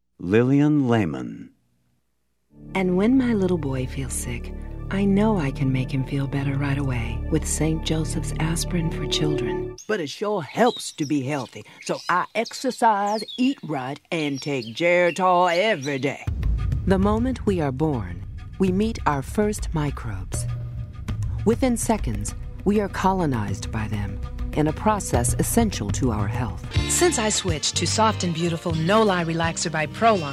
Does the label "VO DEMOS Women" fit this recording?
Yes